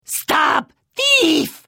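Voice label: Thief, Khajiit female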